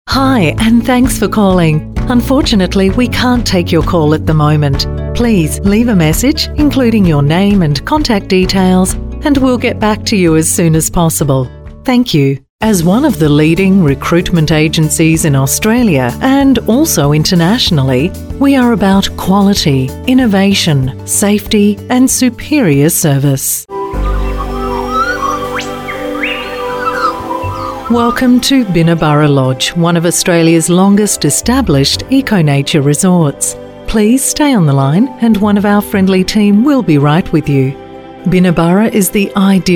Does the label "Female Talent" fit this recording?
Yes